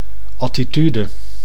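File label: "attitude", Dutch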